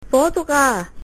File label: "fire", cantonese